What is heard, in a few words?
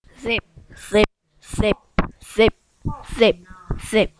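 "zip", spoken word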